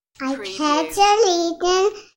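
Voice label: Child I Pledge Allegiance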